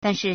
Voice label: "dan4 shi4", Chinese

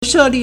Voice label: "she4li4", Chinese

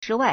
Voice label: "zhi1wai4", Chinese

